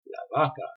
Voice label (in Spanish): "la vaca", espanol